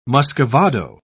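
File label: "muscovado", British English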